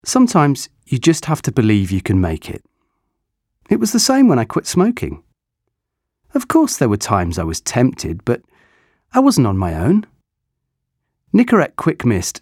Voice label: natural

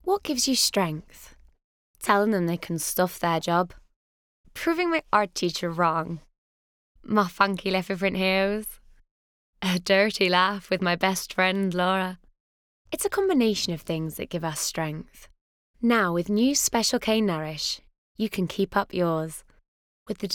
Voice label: character

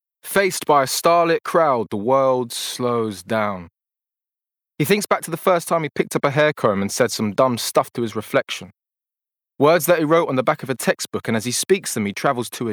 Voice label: VO Reel

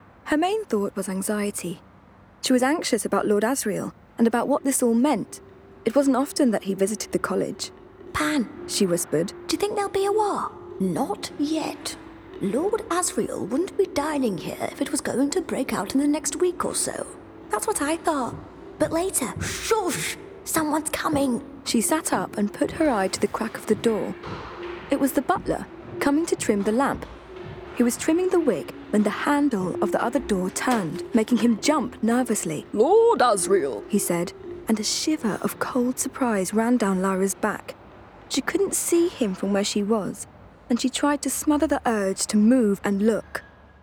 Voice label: VO Reel Audiobook

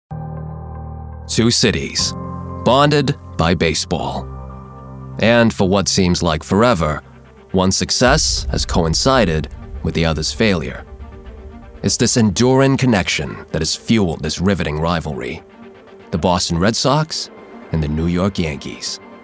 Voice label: Docu; Red Sox

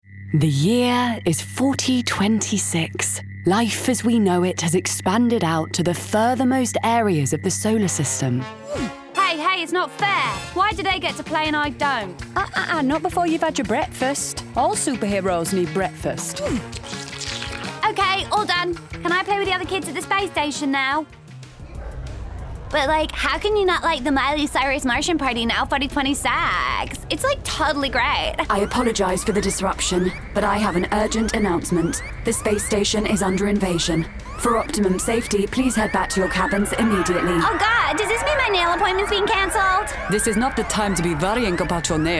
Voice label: Animation

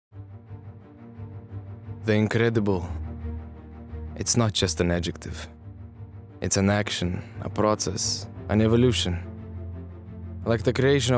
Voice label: HONEY VO Russian; Vodka

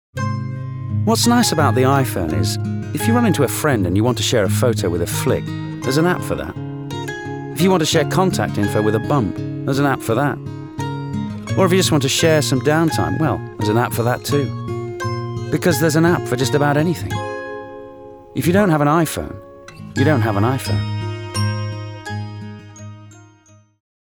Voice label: northern; iphone

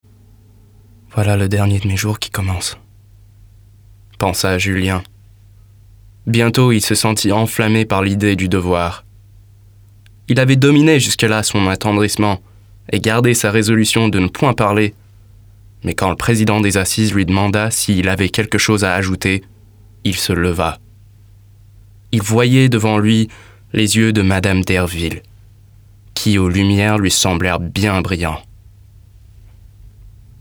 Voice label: French

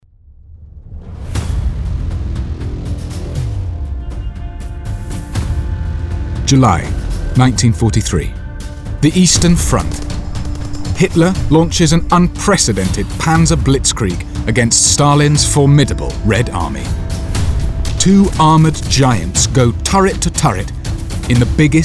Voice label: Documentary

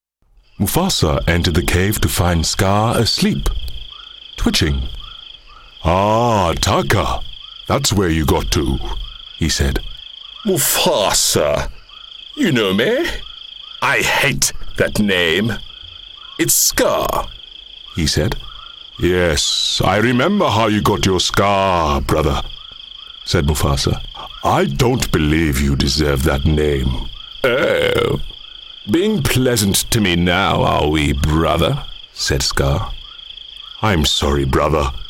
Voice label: Narrative

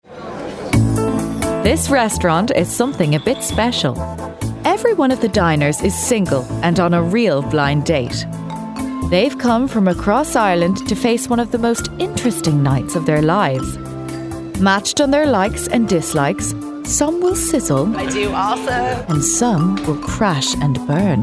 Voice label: Narrative Voice reel

